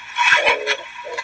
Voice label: aweful WEIRD VOICE